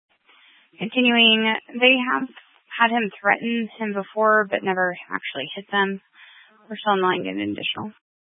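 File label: Sheriff Dispatch, scanner audio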